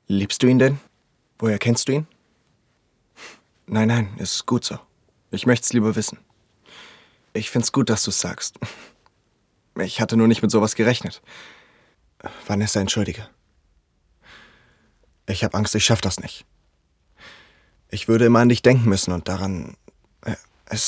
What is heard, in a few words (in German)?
Synchron